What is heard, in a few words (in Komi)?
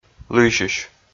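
"лыйсьысь", male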